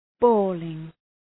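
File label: "bawling", English word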